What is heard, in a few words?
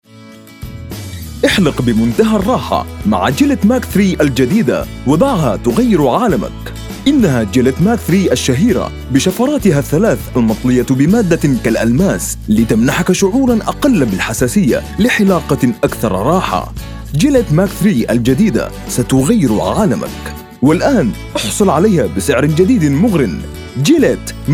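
Commercial AD Classical Arabic, male; Gillette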